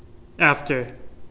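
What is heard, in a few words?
"after", Canadian, male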